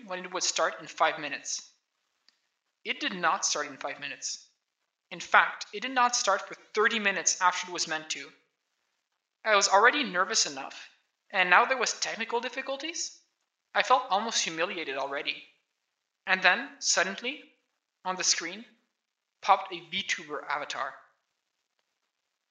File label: voice, narration